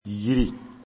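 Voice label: "yiri", Bambara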